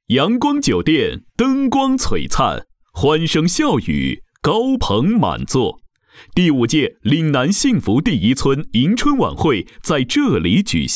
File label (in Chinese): 春晚电视片解说词; 幸福村第五届春晚电视片解说词